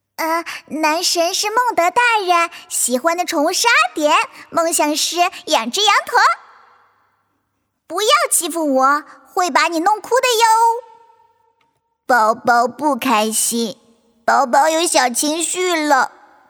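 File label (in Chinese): 游戏女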